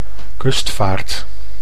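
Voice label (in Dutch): "kustvaard", Dutch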